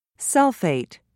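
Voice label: "sulfate", British English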